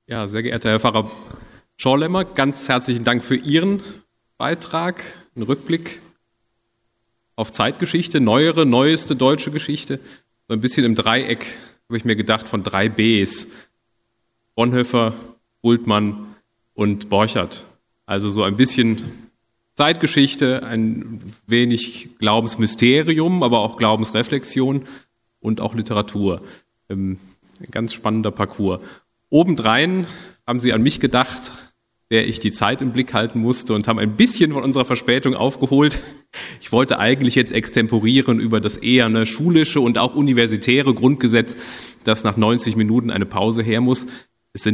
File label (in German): abmoderation sprecher